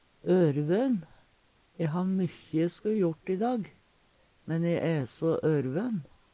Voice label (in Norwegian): ørvøn, Numedalsmål; Adjektiv